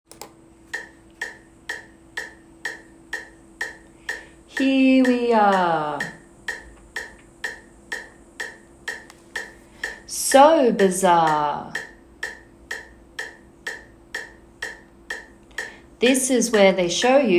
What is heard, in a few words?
Spoken in rhythm (125bpm); Spoken Recordings; Fame The Musical - Holiday Pack